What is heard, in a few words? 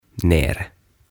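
"Nere", Swedish; Alhaalla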